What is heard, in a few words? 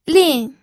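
"lin", fourth tone, pinyin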